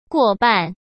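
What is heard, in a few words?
"过半", Mandarin Chinese; chinese-flashcards; hsk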